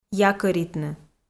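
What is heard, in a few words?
"якӧритны", Komi, female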